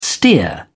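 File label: "steer", British English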